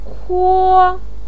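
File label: "kuo", Mandarin Chinese, first tone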